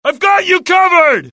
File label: suppress, dialogue generic US; mohaa